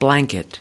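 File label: "blanket", US English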